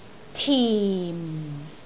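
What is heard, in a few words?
"tim4", Cantonese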